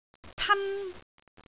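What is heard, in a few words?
"tan1", Cantonese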